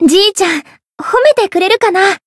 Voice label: growth, jp; orleya

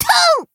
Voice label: Voice attack, jp; morgana

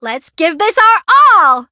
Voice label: Voice variable chant

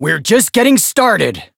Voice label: variable chant

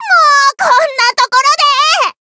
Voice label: encount fear, jp

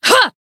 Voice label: Voice attack, jp; clarte

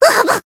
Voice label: damaged, jp; myungwha

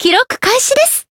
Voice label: Voice encount jp

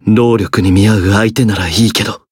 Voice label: Voice encount fear jp

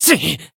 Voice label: Voice damaged, jp

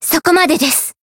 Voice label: finish, jp; tsukiha

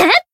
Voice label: attack, jp; eva

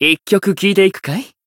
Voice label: encount, jp; dunarith

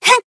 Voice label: attack jp

